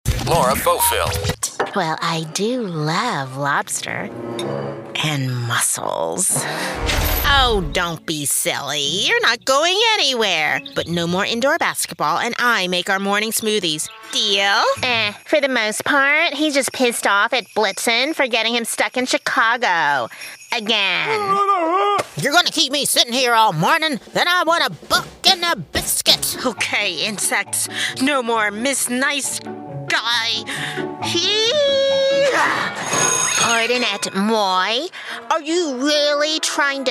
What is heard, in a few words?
animation VO; voice-over